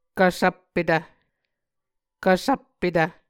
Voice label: "kvsvppetv", Muscogee